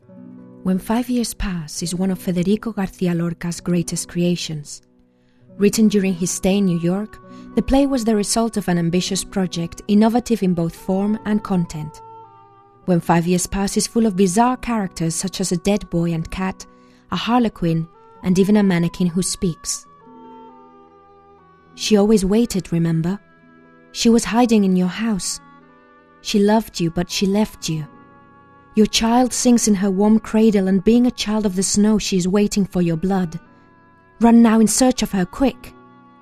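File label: Spanish, English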